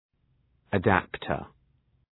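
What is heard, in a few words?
"adaptor", English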